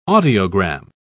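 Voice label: "audiogram", British English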